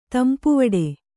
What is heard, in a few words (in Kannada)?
"ತಂಪುವಡೆ", Kannada